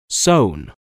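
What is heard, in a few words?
"sewn", English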